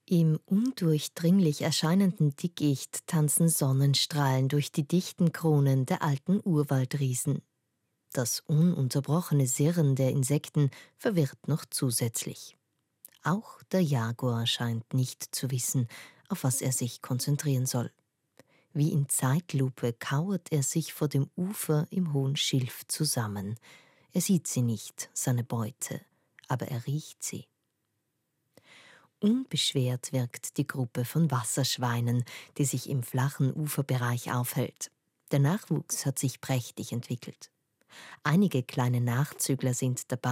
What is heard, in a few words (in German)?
Dokumentation; DEMO Dokumentation; Sprecherin